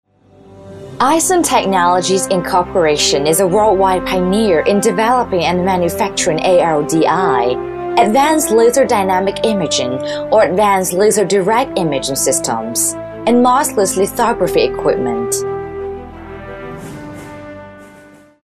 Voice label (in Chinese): 英女